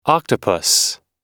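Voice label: "parents in law", us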